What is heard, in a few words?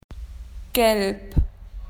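"yellow", Australian English; PictureDictionary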